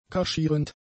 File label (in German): "kaschierend", German; adjectives